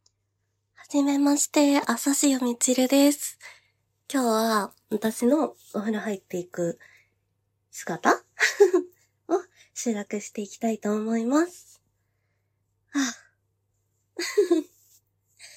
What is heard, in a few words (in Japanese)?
風呂実録, 喋りながらお風呂に入ってる音声